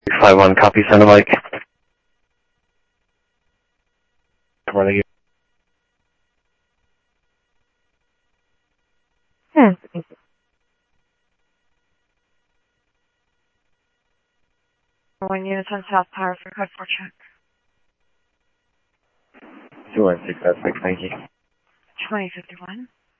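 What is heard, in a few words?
Sheriff East County, scanner audio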